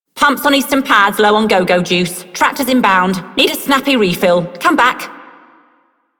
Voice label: Female Dispatcher, Female One, en; Dialog; Warframe; Solaris Venus

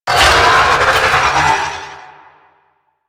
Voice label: Necramech Melee Attack, Barks; Warframe

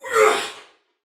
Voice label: Attack Normal, Female, Soldier; Sinecure

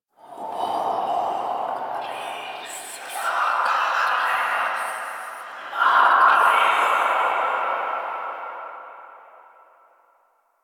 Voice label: Whispers; Inf Hub Lab Behold Us; Warframe; Ambience; Entrati

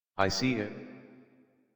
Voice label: Adult, en, Dialog

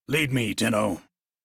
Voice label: Crew Follow, Male; Dialog; en; Warframe; Railjack Crew; Arbiters Hexis

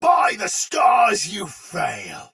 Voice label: Attack Takeover Soldier Sword Agent Male, Battle; Dialog; Barks; Melee; Sinecure

